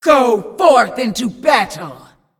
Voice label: Female Demon Start

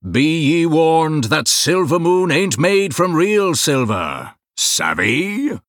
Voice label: Male Blood Elf

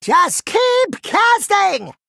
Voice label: Male Gnome Play

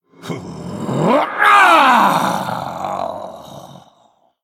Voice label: Male Night Elf Death

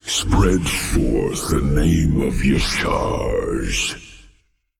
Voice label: Male Old God Bark; YShaarj

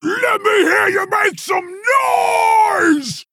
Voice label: Female Quilboar Play

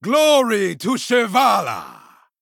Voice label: Male Troll

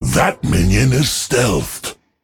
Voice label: Male Drust, Emote ERROR STEALTH

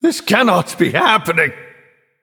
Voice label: Male Nightborne; Mission12 Exchange

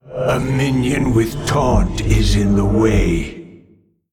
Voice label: Male Old God Emote ERROR TAUNT

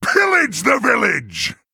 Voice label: Male Tuskar Attack

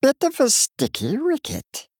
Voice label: Male Murloc Thinking

